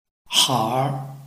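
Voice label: "hair", Chinese, tone 2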